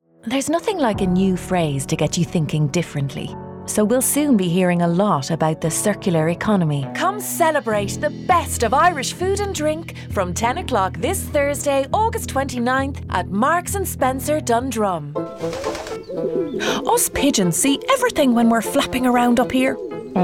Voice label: commercial, Voice Talent - Female